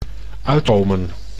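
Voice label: "uitkomen", Dutch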